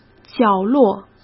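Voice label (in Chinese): "角落", Mandarin Chinese; hsk; chinese-flashcards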